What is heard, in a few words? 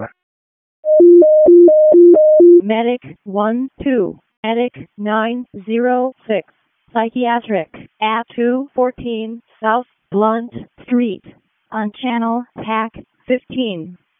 EMS ALERT, p25